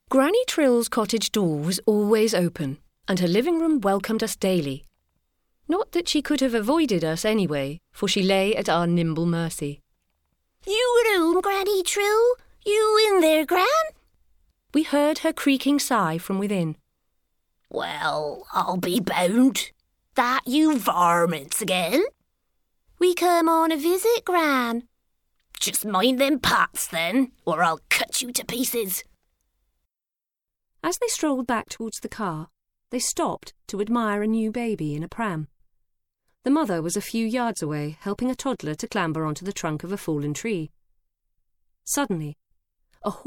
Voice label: Audiobook